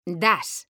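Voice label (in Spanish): "das", Spanish; mekanta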